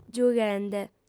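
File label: "giughende", Sardinian, female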